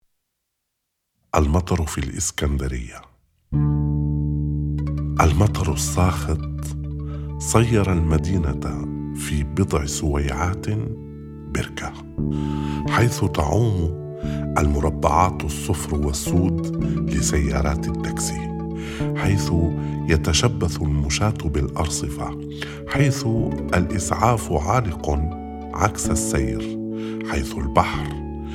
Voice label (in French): arabe; La pluie